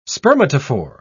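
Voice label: "spermatophore", British English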